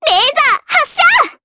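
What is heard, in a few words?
Vox Skill, voices, Japanese; kingsraid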